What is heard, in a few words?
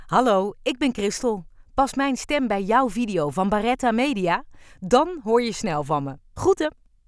voice-over